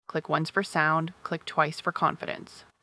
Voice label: Clear and friendly, a practical choice for help content and product education.; clearfriendlyconfident